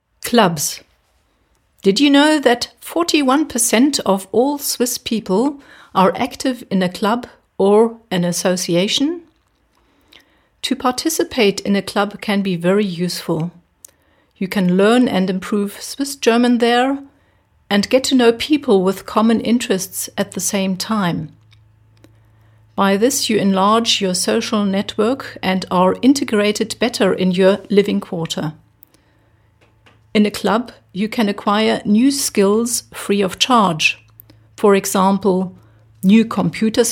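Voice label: English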